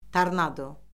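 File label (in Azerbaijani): "tornado", Azerbaijani; Orfoqrafiya Lüğəti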